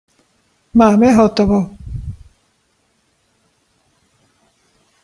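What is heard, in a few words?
"máme hotovo", Slovak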